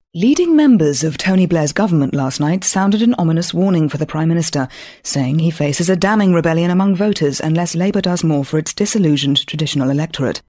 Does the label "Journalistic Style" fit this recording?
Yes